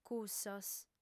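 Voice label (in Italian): "cussos", lingua sarda, female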